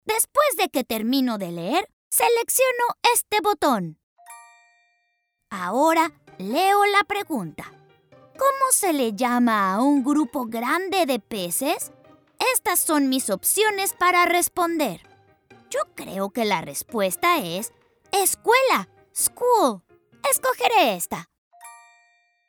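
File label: Spanish Voice Over, Character Education Kids; eLearning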